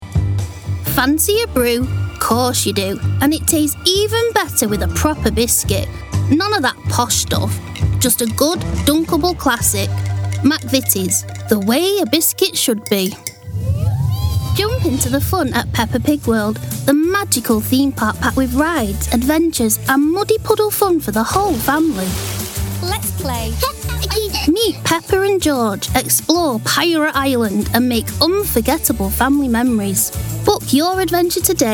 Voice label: Commercial